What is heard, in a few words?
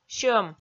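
"сьӧм", Komi, female; kpv audio dict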